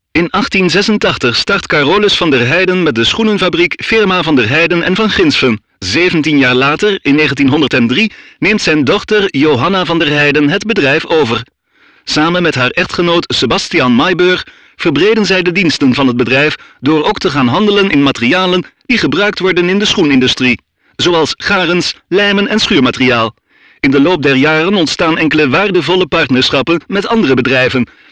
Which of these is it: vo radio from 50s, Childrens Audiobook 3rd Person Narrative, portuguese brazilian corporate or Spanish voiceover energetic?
vo radio from 50s